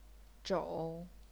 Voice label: "zhou3", chinese pinyin